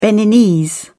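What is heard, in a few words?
"beninese", English, British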